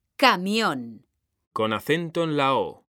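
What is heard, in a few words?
"CAMIÓN", Spanish, female; mekanta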